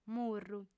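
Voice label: "murru", female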